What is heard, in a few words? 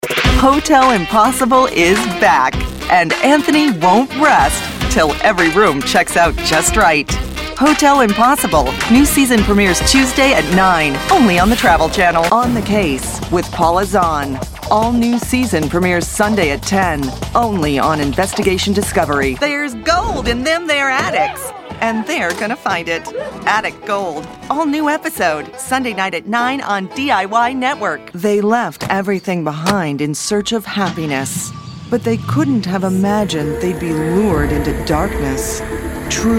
english american promos